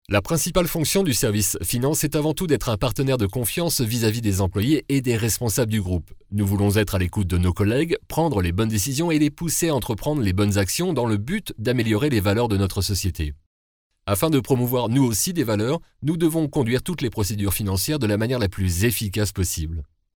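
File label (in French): French, male, narration; voice demos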